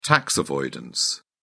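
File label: "tax avoidance", British English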